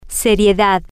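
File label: "se-rie-dad", Spanish, female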